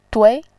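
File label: "dui", Mandarin Chinese, second tone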